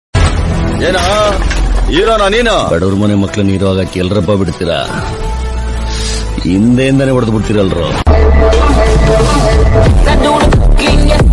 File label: dialogue kannada; badava rascal; ddaali dhananjay